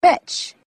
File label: "bitch", expletives